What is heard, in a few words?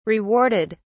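"rewarded", British English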